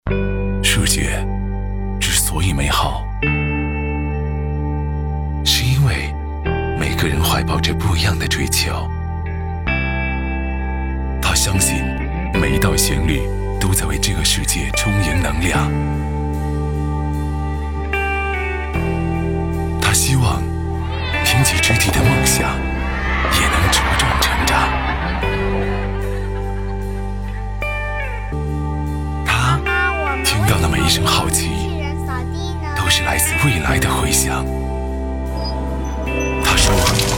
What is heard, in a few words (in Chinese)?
男 形象片 励志; 招商银行